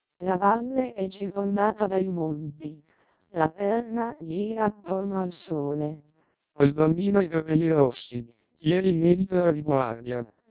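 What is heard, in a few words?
twelp 300 Italian, vocoders lowrate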